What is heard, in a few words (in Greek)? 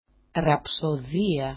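"rapsodia", Greek; ραψωδία; [rapso'δia]; rhapsody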